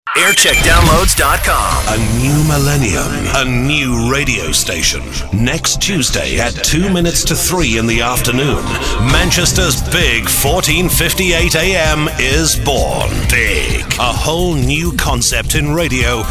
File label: Prelaunch Promo